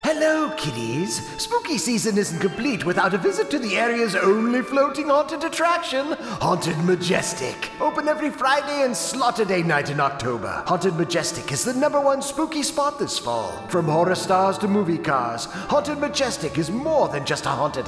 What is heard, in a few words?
radio commercial; Haunted Majestic